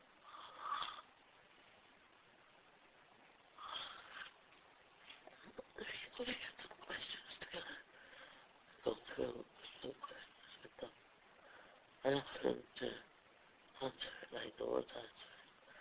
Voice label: long sleep talking